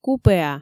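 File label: "купеа", Komi, female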